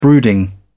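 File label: "brooding", British English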